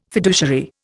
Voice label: "fiduciary", Indian